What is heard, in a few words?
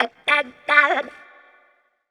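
effects talk, Funky Vocals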